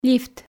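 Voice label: "лифт", Komi, female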